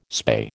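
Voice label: "spay", American English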